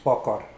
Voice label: "pwoakoar", Mokilese

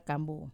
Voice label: "kambu", Wamesa

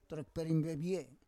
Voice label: "torek perim vevie", Roon

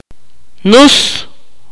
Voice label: "burn", Akateko

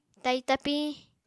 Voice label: "tai tapiñ", Apatani

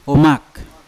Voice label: "omak" machete, Yawa

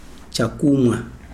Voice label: "drink", Chikunda

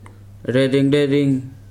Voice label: "color", Weri

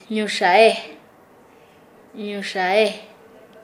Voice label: Nasa Yuwe; canaduzal

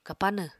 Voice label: "kapanw", Apatani

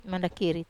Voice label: "mandakiri", Wamesa

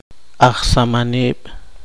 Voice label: poqomchi; arenero

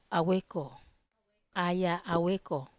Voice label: "aweko", Wamesa

